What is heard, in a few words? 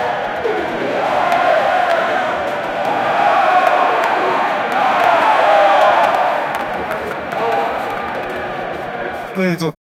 live football tv, commentary